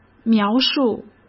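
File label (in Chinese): "描述", Mandarin Chinese; chinese-flashcards; hsk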